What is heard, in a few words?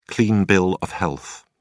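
"clean bill of health", English, uk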